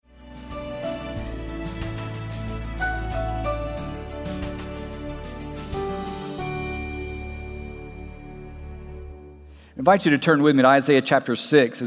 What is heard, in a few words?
Sermon English